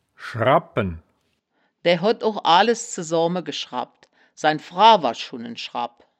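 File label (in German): "schra bbpen", wittlicher woerterbuch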